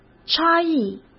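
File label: "差异", Mandarin Chinese; chinese flashcards; hsk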